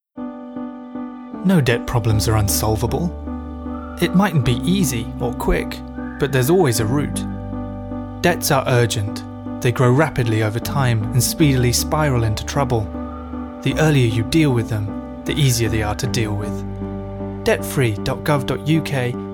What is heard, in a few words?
male british english advice comercial